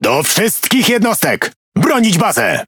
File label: Speech Quick Commands Ally Base, PL; World Of Warships